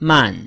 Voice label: "man4", Chinese